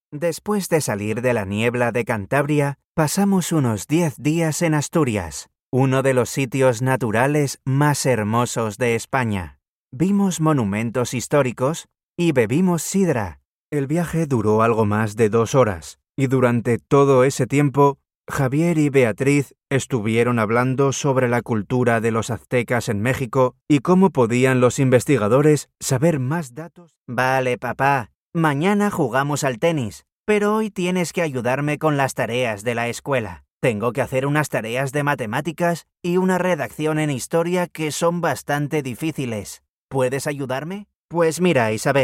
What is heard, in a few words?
Learn Spanish Audio